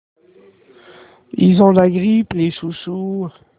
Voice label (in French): Message vocal